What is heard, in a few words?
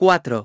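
"four", English; iOS 4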